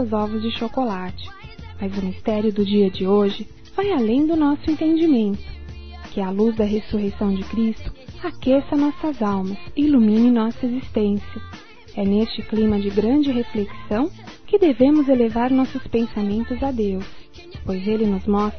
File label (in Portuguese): Páscoa Neutra Feminino; Telemensagens